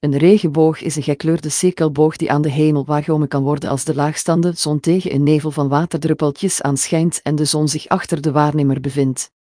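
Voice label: speaker, American English; piper-voices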